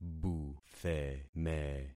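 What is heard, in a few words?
"butheme", concatenated syllables; Affixing experiment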